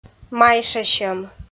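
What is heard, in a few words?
"майшасьӧм", Komi, female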